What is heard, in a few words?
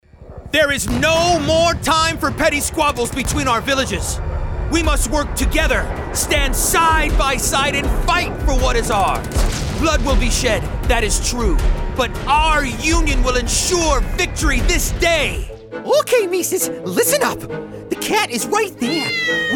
Character